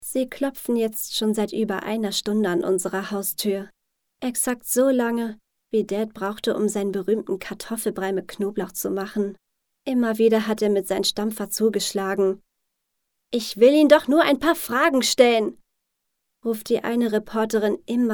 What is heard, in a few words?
german